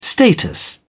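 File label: "status", British English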